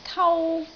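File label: "kau1", Cantonese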